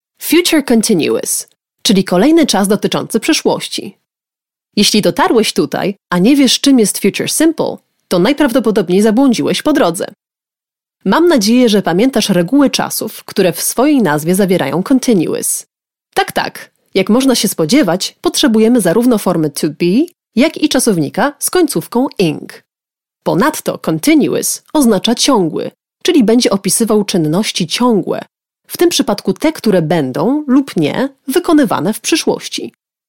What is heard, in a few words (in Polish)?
Lektorka; Studio Nonagram